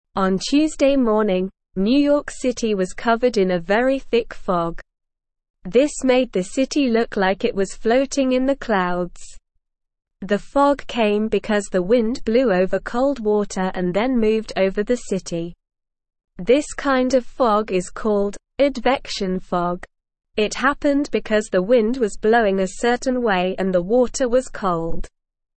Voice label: English SLOW Reading; New York City Covered in Thick Fog